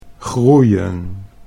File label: "groeien", Dutch